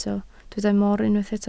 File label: "mor", Welsh